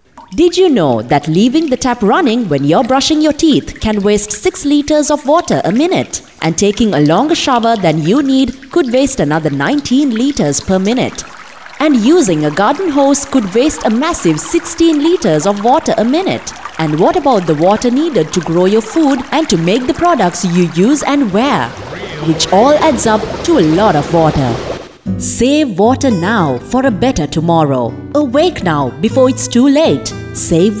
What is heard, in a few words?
Red Cross Radio Message; IWMI